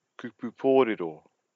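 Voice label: "kupu poriro", Māori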